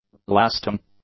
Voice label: "lasting", english; adjectives